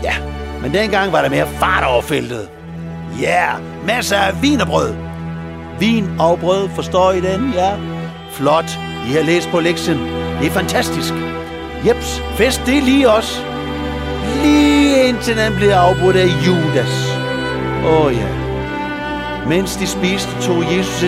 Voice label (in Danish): Nadver drama med speak